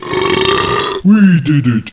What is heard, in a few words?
annoyed; ogre-mage; <Burp> We did it.